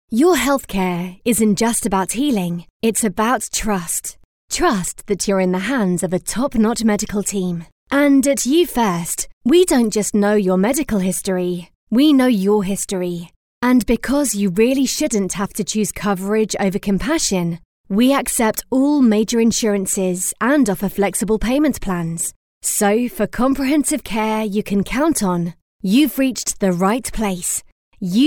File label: Sincere